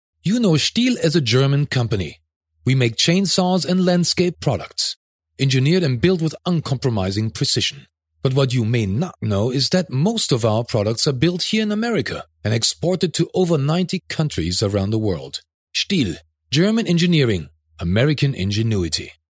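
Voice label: German, male, English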